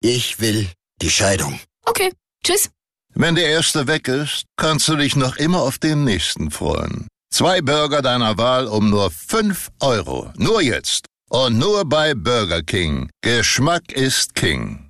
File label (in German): radiospot